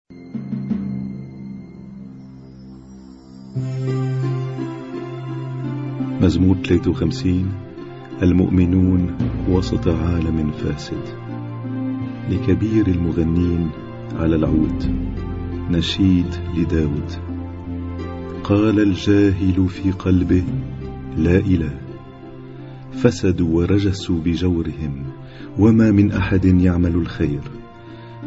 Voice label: Arabic Psalm Zaboor